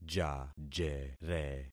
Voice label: "jajere", concat syllables; Affixing experiment